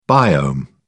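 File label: "biome", British English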